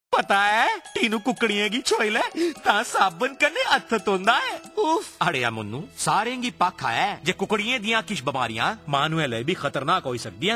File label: Hand Wash Radio PSA, Dogri; Prevention-Hand Wash Radio PSA (Dogri) UNICEF IEC eWarehouse; This is second in the series of five Radio PSA, address backyard farmers and their families. It uses a performer and a rooster puppet